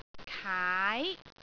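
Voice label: "kaai2", Cantonese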